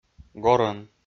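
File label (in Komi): "горӧн", Komi, male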